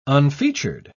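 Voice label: "unfeatured", English, uk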